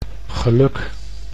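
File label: "geluk", Dutch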